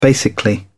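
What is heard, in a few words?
"basically", British English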